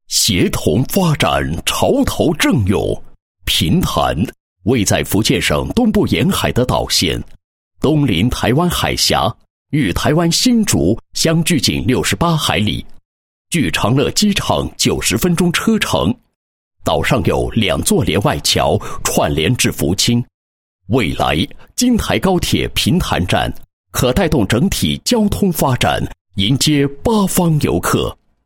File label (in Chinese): 男-地区; 平潭岛解说词